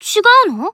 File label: Emo Confirm